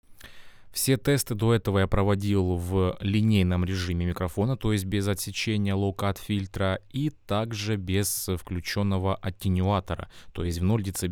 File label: lewitt lct 640 ts low cut filter; конденсаторный, широкомембранный, микрофон; Lewitt LCT 640 TS — это конденсаторный, широкомембранный, микрофон за 1000$